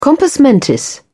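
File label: "compos mentis", English, British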